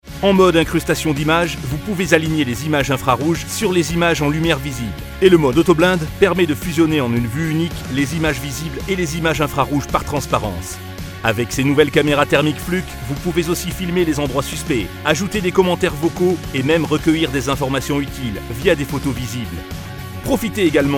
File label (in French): voix grave dynamique; Flucke